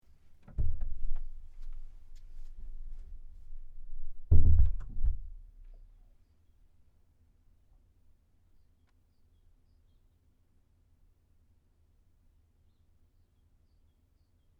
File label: Recording Studio Test